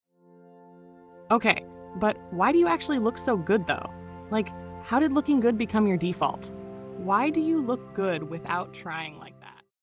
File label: meditative you look good audio